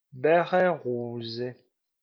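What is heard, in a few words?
"berretroge", Occitan Limousin; Prêts pour l’Òc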